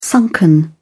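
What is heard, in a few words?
"sunken", English, uk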